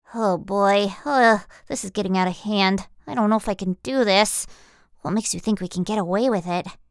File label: Character Young Boy Nasal Nerd; American English voice-over artist